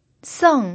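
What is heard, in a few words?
"seng" Mandarin Chinese, fourth tone, pinyin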